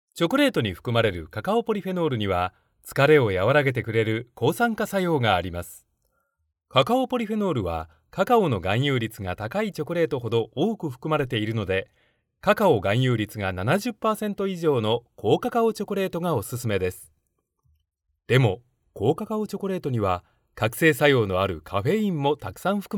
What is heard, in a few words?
male